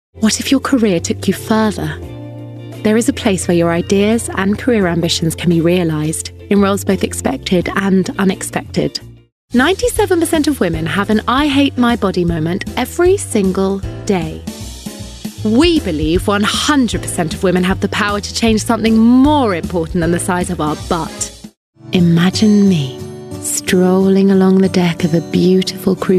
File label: UK